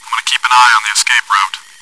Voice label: chitchat, bot, voice commands; svencoop